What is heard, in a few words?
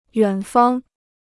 "远方", Chinese